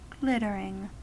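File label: "glittering", US English; pronunciations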